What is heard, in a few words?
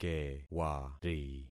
"kewari", concat syllables; Affixing experiment